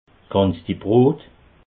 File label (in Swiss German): "kansdibrot", alsace